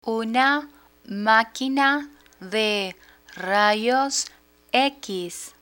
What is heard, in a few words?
"una maquina de rayos x" Spanish; learn spanish